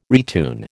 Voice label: "retune", American